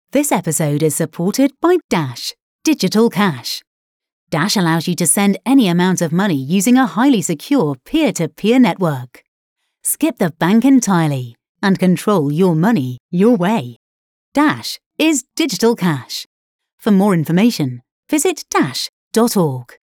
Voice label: Pre-roll Generic